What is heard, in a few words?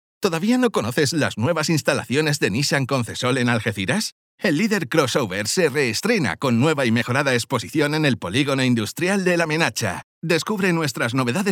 locutor voiceover